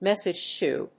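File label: "message to", English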